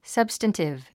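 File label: "substantive", English; 発音 sʌ́bstəntiv サブスタンティブ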